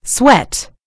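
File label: "sweat", British English; gcompris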